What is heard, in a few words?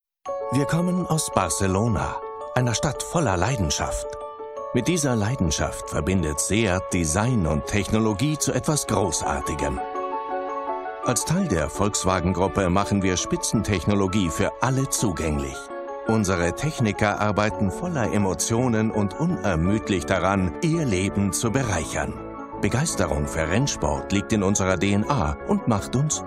male german commercial; ideasonora